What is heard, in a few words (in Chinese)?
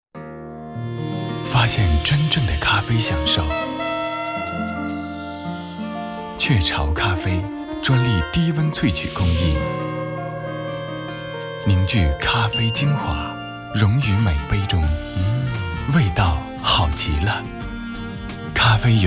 咖啡 温馨浪漫; 雀巢咖啡